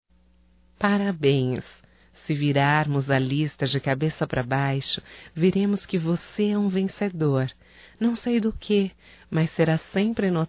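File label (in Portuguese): Gozacao amigo female, Só Voz; Telemensagens; AMIZADE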